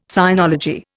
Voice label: "sinology", Indian